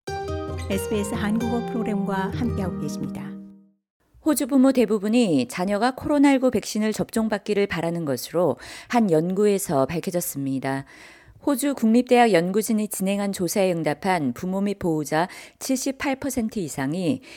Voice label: korean; SBS Korean; 최신 보고서 "호주 부모 대부분, 자녀 백신접종 원해"; vaccine children